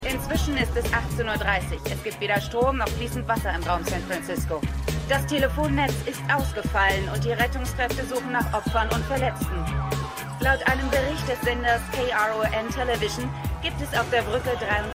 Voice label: Nachrichtensprecherin; Quantum Leap

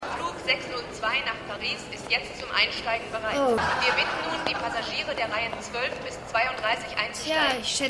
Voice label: Flughafendurchsage; Die Nanny

